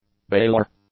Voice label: "baler", English; nouns